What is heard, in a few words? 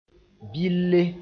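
"bɩlle", Mooré; yeast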